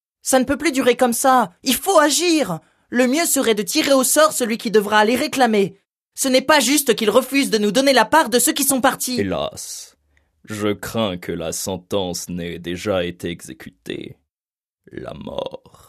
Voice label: cartoon voices french